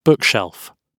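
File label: "bookshelf", British English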